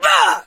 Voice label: hurt vo; bibi; thor skin

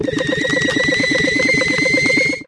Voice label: Emote Angry

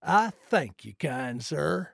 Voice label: trader male like sale accepted

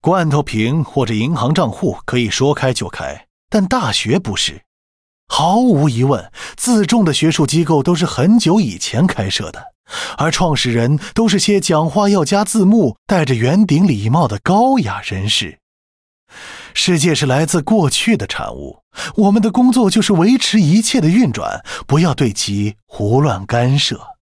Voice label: Mandarin; 双点校园; 开局播报

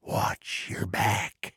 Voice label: trader male dislike greeting

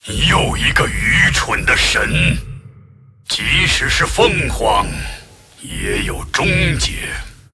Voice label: move, zh

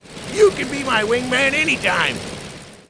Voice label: Gyrocopter pissed

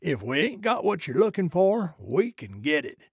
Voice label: trader male like sale declined

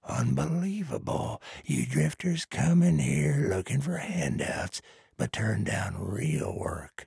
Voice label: trader male dislike quest declined

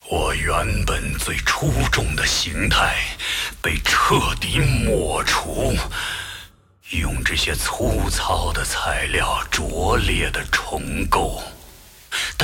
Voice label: move, zh

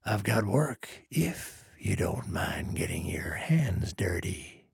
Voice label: trader male dislike quest offer

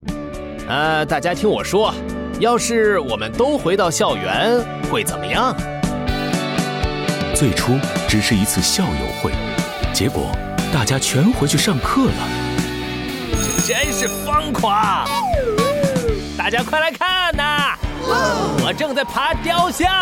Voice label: Mandarin Ad; 双点校园; 电台广告; Roderick; Immature Students

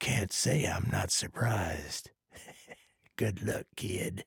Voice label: trader male dislike quest accepted

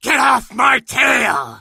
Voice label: hurt

